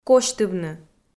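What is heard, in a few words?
"косьтывны", Komi, female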